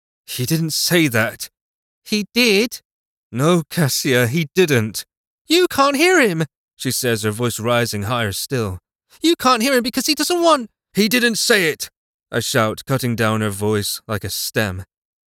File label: Narration